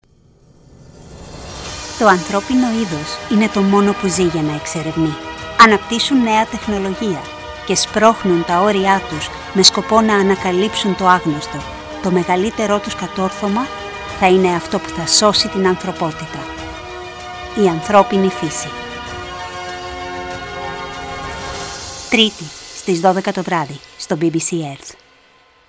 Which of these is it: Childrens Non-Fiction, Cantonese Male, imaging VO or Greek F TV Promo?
Greek F TV Promo